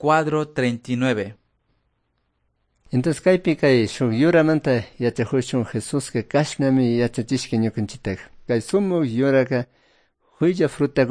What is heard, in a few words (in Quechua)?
good news quichua cayambe; quechua; bible